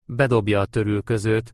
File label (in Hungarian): bedobja a törülközőt, Hungarian